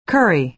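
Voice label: "curry", American English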